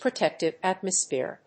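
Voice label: "protective atmosphere", English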